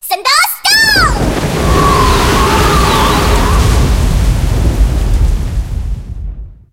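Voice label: skill thunderstorm, Japanese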